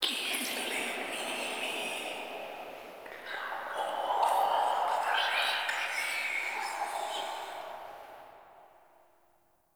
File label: Whispers; Ambience; Props; Inf Hub Lab; Many Lights; Warframe; Entrati